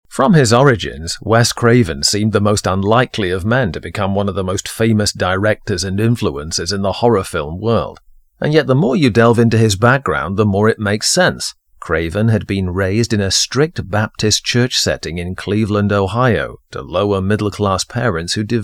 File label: Narration